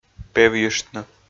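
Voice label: "певйыштны", Komi, male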